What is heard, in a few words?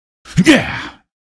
kill, male; video games; npc; source engine; episodic; episode 1